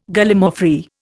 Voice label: "Gallimaufry", Indian